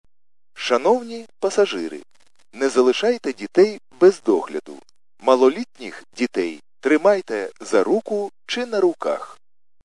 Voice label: khs st male, subway station